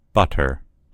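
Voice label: "butter", American English; gcompris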